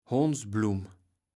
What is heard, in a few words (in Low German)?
"Hondsblumm", kaevels platt